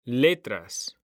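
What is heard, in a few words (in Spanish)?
"letras", Spanish, male; mekanta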